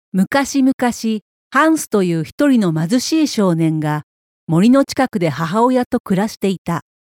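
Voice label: Japanese, female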